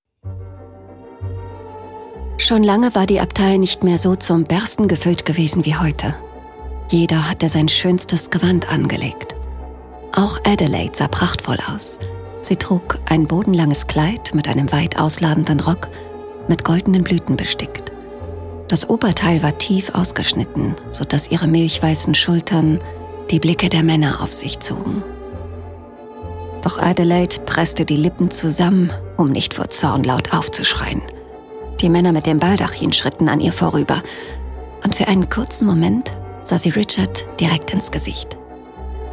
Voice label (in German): hoerbuch historienroman